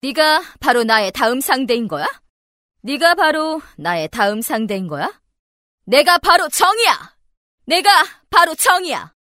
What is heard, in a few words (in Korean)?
강인한 자신만만한 여군 게임 20대